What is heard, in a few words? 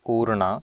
"uurNa", Sanskrit